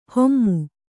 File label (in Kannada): "ಹೊಮ್ಮು", Kannada